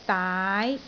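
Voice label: "daai2", Cantonese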